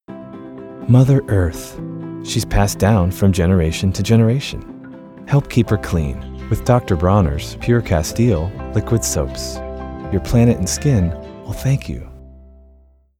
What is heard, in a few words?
spokesperson; dr. bronners soaps